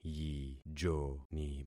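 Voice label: "yijoni", concatenated syllables; Affixing experiment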